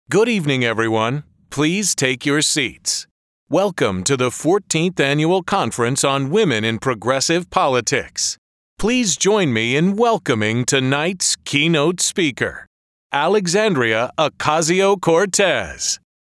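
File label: voice of god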